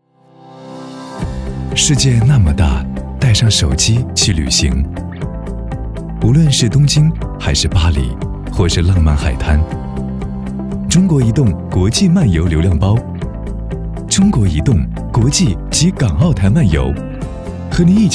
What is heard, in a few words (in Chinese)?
男国 广告 手机通信 时尚; 中国移动